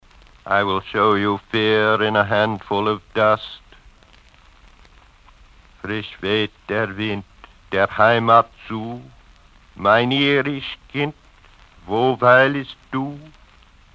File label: irish kind